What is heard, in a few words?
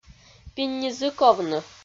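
"пинь ни зык овны", Komi, female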